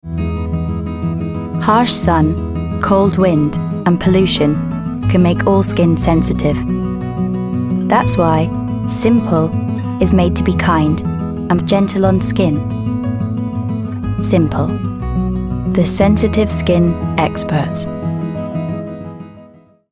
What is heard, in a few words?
Neutral Commercial Simple